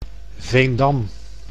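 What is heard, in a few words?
"Veendam", Dutch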